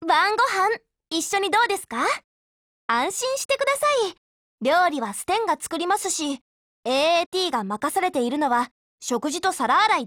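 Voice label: DIALOGUE, Japanese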